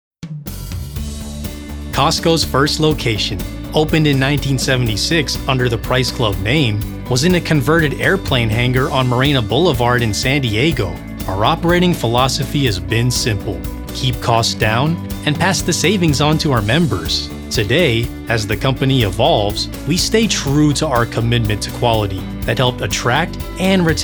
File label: Corporate Narration